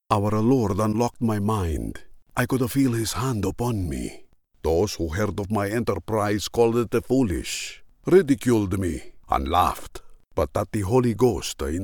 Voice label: Accented English

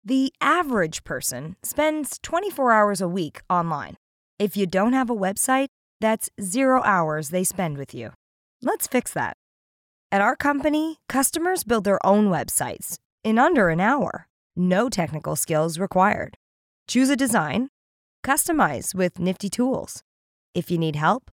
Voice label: Internet ad Sample Straightforward conversational

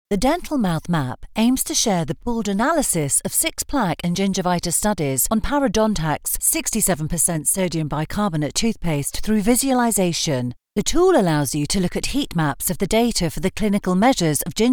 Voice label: Studio sound

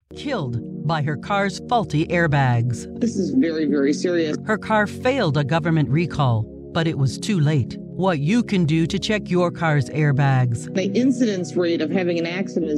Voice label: Investigational Report Promo

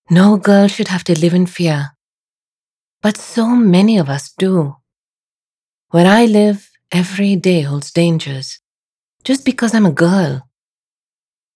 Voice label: Indian British TV spot

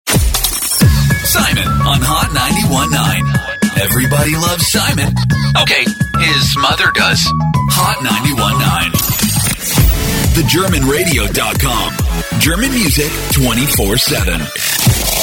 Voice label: Radio Imaging

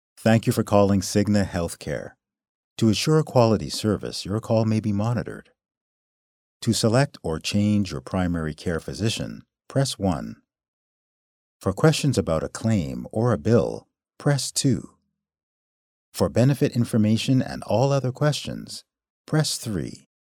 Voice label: Telephone Msg; cigna

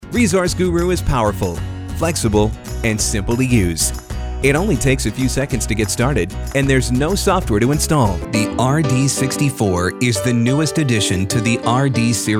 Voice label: General Voice Over

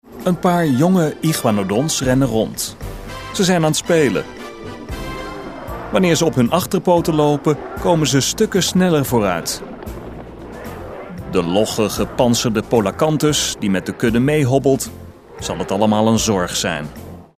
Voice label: vo casual friendly

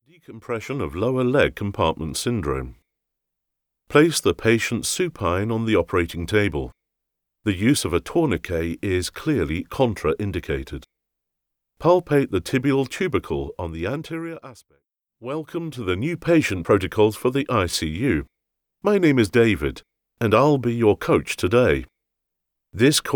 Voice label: elearning voicereel

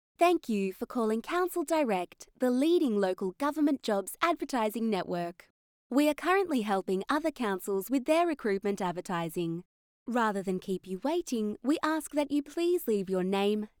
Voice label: Council Direct IVR

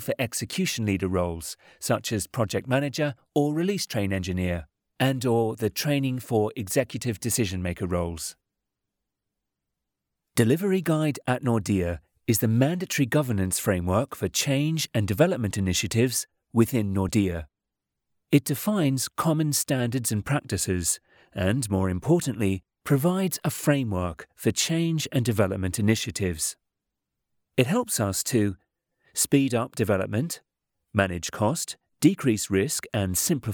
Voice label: E Learning UK

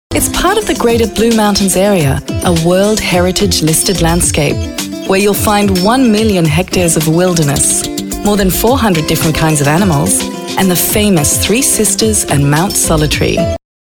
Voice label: Narration Demo

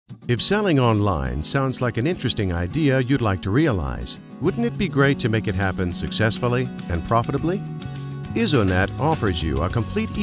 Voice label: Technical and E Learning

